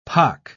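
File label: "pock", British English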